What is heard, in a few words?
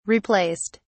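"replaced", British English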